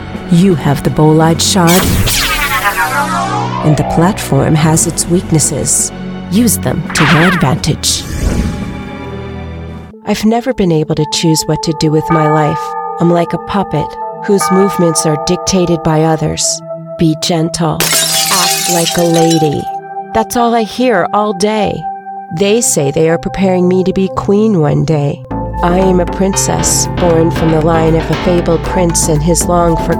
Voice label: English American video games